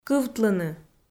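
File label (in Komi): "кывтлыны", Komi, female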